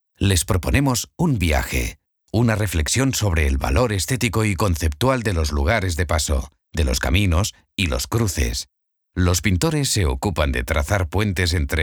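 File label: audio guide; AUDIOGUIA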